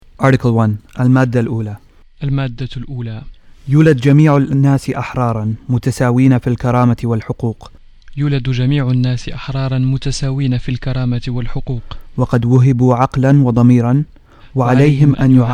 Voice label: Arabic